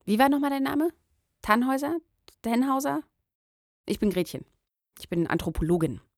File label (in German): Deutsch; Gretchen